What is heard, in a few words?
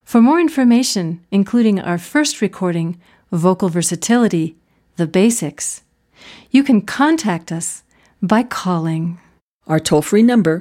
Voice Fem